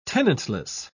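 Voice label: "tenantless", British English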